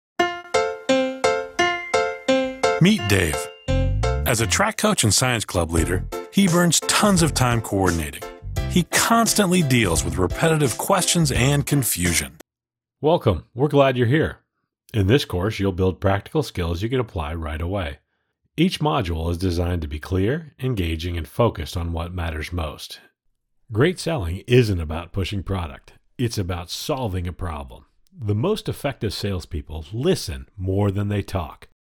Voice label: Corporate